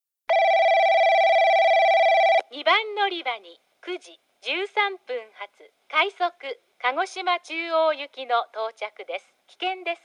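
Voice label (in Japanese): 2番のりば接近放送（快速オーシャンライナーさつま 鹿児島中央行き）, 女声放送; 伊集院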